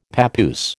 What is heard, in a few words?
"papoose", American